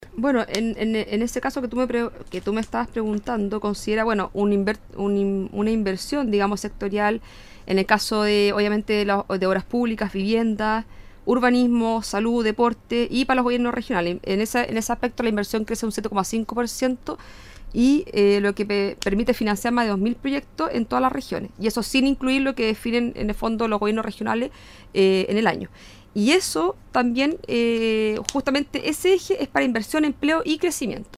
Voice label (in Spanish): cuña presupuesto male